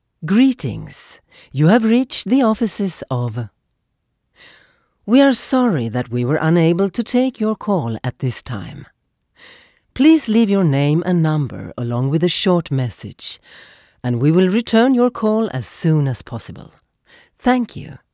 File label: english commercial